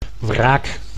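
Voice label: "wraak", Dutch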